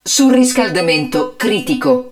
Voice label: HUD HEAT LEVEL CRITICAL, Italian; XBOX; BattleEngineAquila